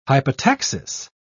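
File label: "hypotaxis", British English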